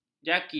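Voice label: "jaki", Malay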